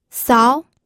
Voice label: "sao2", pinyin

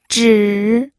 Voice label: "zhi3", pinyin

